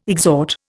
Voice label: "exhort", Indian

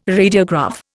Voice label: "radiograph", Indian

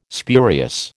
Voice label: "spurious", American

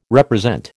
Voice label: "represent", American

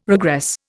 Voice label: "regress", Indian

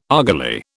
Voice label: "argali", British

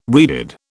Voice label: "reeded", British

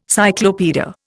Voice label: "cyclopaedia", Indian

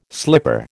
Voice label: "slipper", American

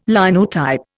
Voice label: "Linotype", Indian

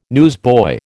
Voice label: "newsboy", American

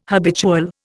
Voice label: "habitual", Indian

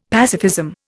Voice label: "pacifism", Indian; Dictionary

